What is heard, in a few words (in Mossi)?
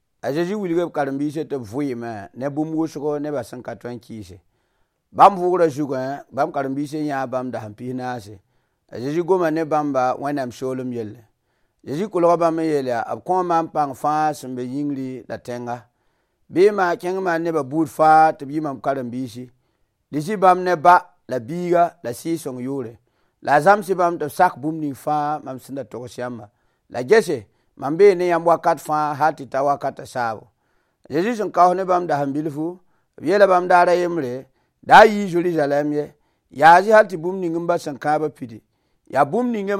Mossi Moore; Départ de Jésus au ciel; The Great Commission